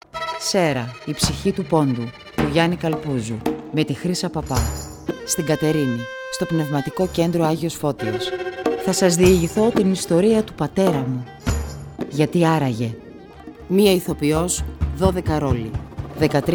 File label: Radio Spot; ΣΕΡΡΑ Κατερίνη